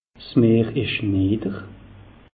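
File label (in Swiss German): "mer", Alsatian; meteo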